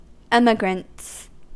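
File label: "emigrants", US English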